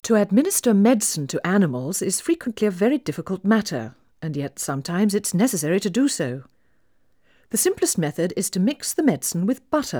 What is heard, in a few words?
Female speech English Mono output noised; 3bit